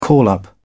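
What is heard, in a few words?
"call up", British English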